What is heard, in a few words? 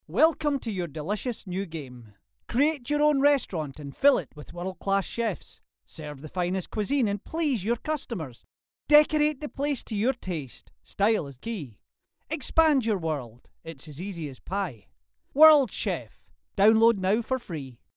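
English Scottish male, scottish voice over talent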